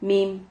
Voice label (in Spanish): "mim", Silabas